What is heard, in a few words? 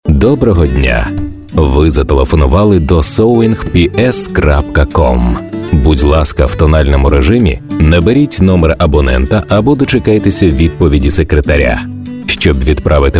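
Ukrainian, male, IVR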